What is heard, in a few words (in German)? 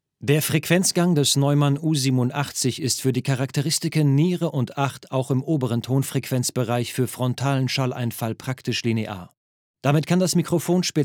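erklarfilm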